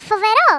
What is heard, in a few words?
"AMAZING", Greek; Worms Armageddon